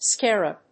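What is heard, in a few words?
"scarab", English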